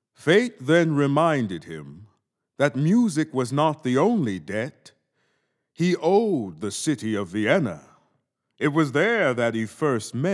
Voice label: narration